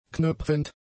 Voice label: "knöpfend", German; adjectives